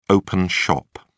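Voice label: "open shop", British English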